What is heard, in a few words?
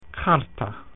"paper", Latin